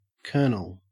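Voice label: "kernel", Australian English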